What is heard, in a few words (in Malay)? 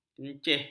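"keceh", Malay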